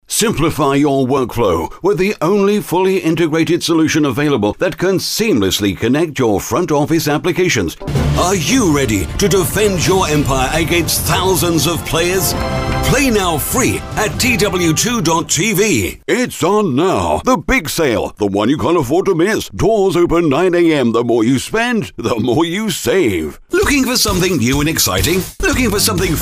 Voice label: hard sell excerpts uk voiceover